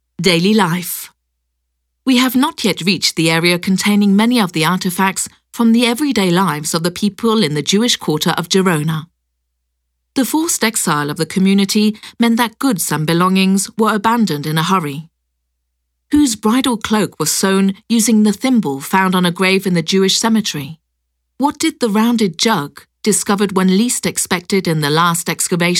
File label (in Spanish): audioguia; museujueus engl